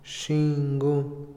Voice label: pelo púbico, Otomí, hombre; INALI

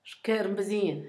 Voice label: ratonera, Zapoteco, Asunción Ocotlan; Animales; INALI; Haspelmath

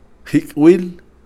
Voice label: respirar, Kakchikel

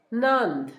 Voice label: frio, Zapoteco, Asunción Ocotlan; INALI; Haspelmath

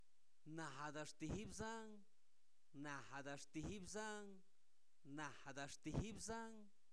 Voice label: yo (solo), Zapoteco, santo domingo albarradas; INALI; pronombre libres

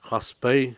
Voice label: manantial pozo, Cucapá

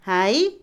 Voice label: suelo, Otomí, mujer; Casa; INALI

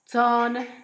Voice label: tres, Zapoteco, Asunción Ocotlan; Números; INALI

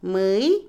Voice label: estómago, Otomí, mujer; Cuerpo; INALI

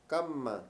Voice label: calabaza, Mayo; Agricultura y vegetación

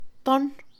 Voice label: tocar, Mixe, Mujer; Percepción; INALI

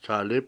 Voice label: "encender", Cucapá

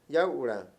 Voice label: "gobierno", Mayo; Mundo moderno

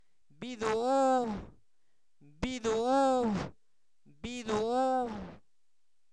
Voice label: Zapoteco Albarradas, santo domingo albarradas; comestibles; plátano; INALI

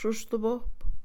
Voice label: otoño, Mixe, Mujer; INALI

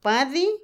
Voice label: aprender, Otomí, mujer; INALI

